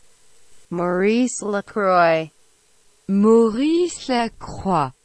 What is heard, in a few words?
"Maurice Lacroix" Pronunciation